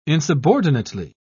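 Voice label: "insubordinately", British English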